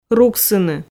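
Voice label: "руксыны", Komi, female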